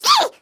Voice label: Pain Grineer Jester; Warframe; Battle Barks

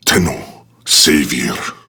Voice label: Idle Barks Prime Grineer Male; Tau; Battle Barks

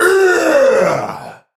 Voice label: Attack Effort Heavy; Tau; Dax; Battle Barks

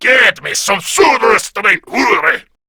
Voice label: Cover Me, Male; Warframe; Grineer Male B; Battle Barks

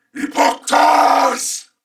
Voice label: Attack Male, en; Dialog; Barks; Battle; Corpus Venus; Warframe; CorpusVenusMaleB

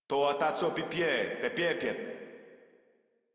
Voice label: Patrol Modular Corpus Male; Dialog; Barks; Battle; Warframe

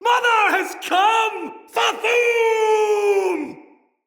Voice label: Cry, Soldier Male; Dendrit

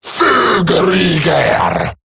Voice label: Attack Eximus Grineer Male; Battle Barks

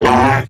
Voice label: Pain, Robot Large

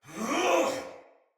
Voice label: Attack, Soldier Male; Sinecure Soldier Male B

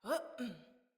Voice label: Dismount Female, Battle Barks; Envoy

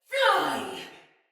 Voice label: Shoot Arrow, Soldier Female; Battle; Barks; Dialog; Sinecure Soldier Female A

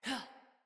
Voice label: Jump Female, Battle Barks; Envoy

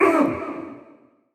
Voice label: Pain Spider, en; Mendicant Wazard

